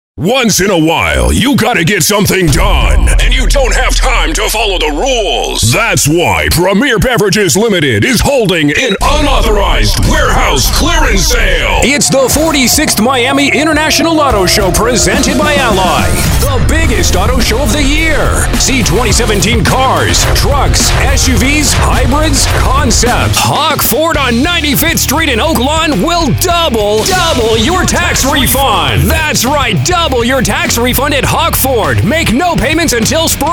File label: High Energy